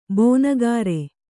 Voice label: "ಬಣಕಾರ", Kannada

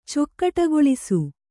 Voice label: "ಚೊಕ್ಕಟಗೊಳಿಸು", Kannada

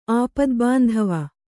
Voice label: "ಆಪದ್ಬಾಂಧವ", Kannada

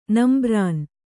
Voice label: "ನಂಬ್ರಾನ್", kannada

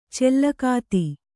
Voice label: "ಚಲಗತಿ", Kannada

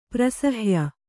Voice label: "ಪ್ರಸಹ್ಯ", Kannada

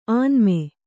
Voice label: "ಆನ್ಮೆ", Kannada

